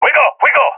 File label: request move, balkan; balkan epic